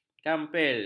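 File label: "kampil", Malay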